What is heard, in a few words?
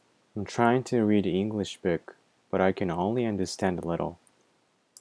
trying to read a English book